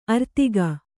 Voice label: "ಆರ್ಥಿಕ", Kannada

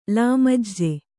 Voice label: "ಲಾಮಜ್ಜೆ", kannada

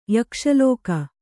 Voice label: "ಯಕ್ಷಲೋಕ", Kannada

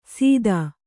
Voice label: "ಸಿತ", Kannada

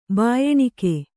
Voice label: "ಭಯಾನಕ", Kannada

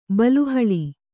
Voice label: "ಬಲೆಹುಲ್ಲು", Kannada

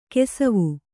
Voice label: "ಕುಸುವು", Kannada

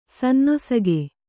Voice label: "ಸಾನುನಾಸಿಕ", Kannada

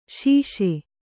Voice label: "ಸಾಸ", Kannada

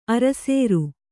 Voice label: "ಅರಸೇರು", Kannada

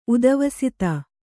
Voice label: "ಉಧ್ವಸ್ತ", Kannada

